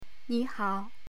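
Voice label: "ni2hao3", Chinese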